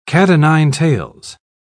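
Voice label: "cat-o'-nine-tails", British English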